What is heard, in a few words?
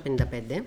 Female conversational Athens